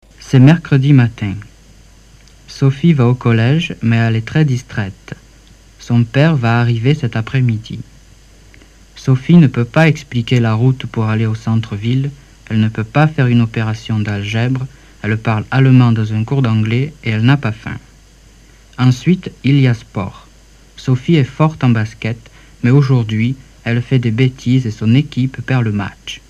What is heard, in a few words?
French Text